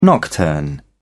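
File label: "nocturne", British English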